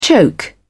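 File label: "choke", British English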